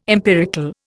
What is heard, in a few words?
"empirical", Indian